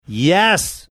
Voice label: annoyed yes